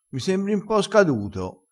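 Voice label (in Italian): "scaduto", dialettale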